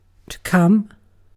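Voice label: "come", British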